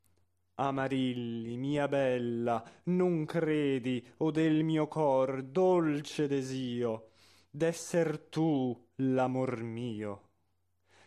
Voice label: espressivo; Caccini; Amarilli

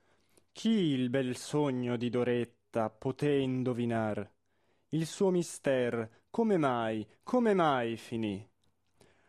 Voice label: espressivo; La Rondine; Chi il bel sogno di Doretta

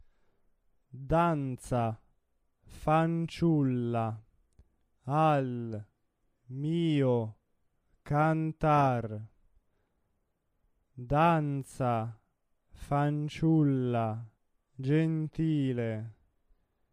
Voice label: monotono; Danza fanciulla gentile

